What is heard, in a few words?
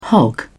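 "hog", British English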